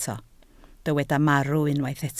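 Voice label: "marw" Welsh, spoken word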